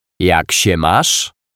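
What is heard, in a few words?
"Jak sie masz", Polish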